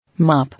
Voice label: "Mop", English

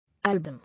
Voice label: "Album", English

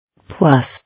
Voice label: "Plus", English

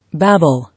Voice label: "Babble", English

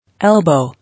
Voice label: "Elbow", English

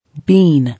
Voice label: "Bean", English

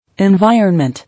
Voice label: "Environment", English

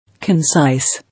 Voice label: "Concise", English

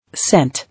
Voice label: "Cent", English

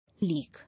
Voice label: "Leak", English

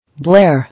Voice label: "Blare", English

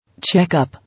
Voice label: "Check-up", English

